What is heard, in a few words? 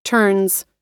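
"turns", US English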